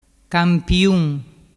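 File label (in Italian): "campiun", dialect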